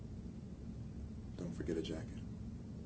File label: SAD, sad emotion